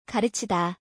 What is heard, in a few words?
korean teach gareuchida